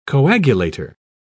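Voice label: "coagulator", English, British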